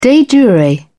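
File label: "de jure", en, British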